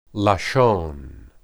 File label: "lashon", Hebrew